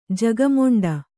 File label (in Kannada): "ಜಗಮೊಂಡಿ", Kannada